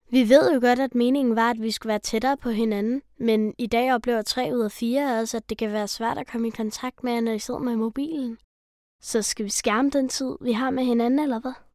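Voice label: Danish female commercial natural warm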